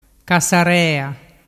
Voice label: "cassarea", dialettu

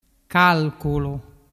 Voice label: "calculu", dialettu

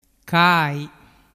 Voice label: "cai", dialettu

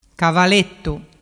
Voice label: "cavalettu", dialettu

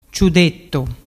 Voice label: "ciudettu", dialettu

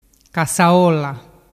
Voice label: "cassaolla", dialettu

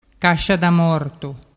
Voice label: "cascia da mortu", dialettu

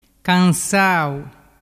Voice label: "cansau", dialettu

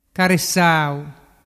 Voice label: "caresau", dialettu

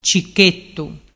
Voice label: "cichettu", dialettu

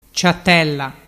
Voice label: "ciatella", dialettu

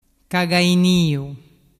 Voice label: "caganiu cagainu", dialettu

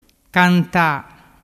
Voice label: "canta", dialettu

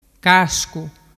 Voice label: "cascu", dialettu

